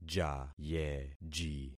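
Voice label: "jayeji", concatenated syllables; Affixing experiment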